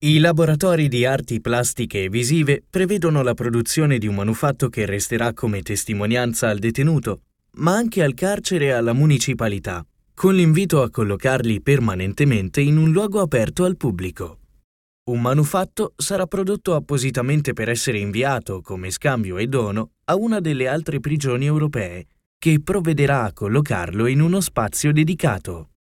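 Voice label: Italian narration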